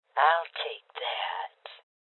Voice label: Voices Loot Collect Female Old; rebel cops 2019